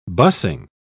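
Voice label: "busing", British English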